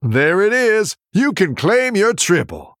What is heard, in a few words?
Male Human Triple